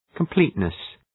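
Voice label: "completeness", English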